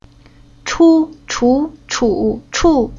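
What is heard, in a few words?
"Chu", Chinese syllable; Уроки фонетического блока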